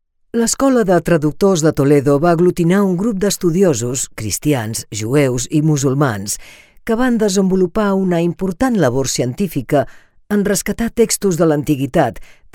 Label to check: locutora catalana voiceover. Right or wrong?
Right